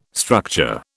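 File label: "structure", British English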